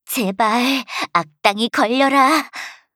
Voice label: trap, Voice Line, KOR; Eternal Return